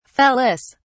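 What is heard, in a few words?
"felis", British English, dictionary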